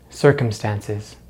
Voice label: "circumstances", English; easypronunciation

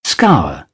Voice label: "scour", British; AmericanBritish

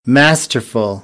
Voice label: "masterful", American; æ - ɑ

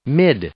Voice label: "mid", English; i-ɪ; adjective

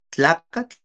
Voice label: "tlakatl", Náhuatl; hombre; man

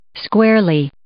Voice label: "squarely", English

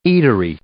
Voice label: "eatery", English

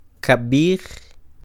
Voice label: "Kab'ij", Quiché; pasado mañana; day after tomorrow; INALI; UTexas; CHQETA

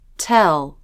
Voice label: "tell", English

